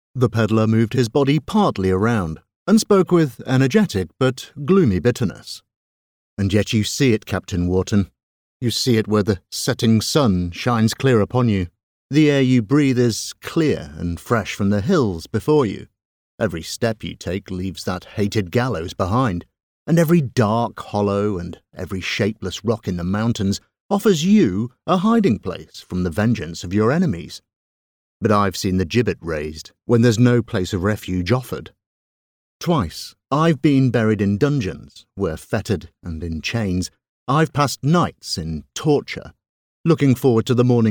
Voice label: Audiobook